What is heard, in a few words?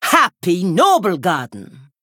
Female Troll HAPPY NOBLEGARDEN